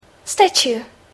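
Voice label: "statue", English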